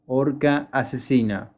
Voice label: Killer whale spanish